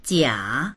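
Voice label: "jia3", Chinese; 假; にせの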